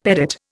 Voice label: "pedate", Indian; Dictionary